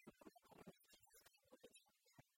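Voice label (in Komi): ме муна коммунистическӧй улича кузя, Komi, female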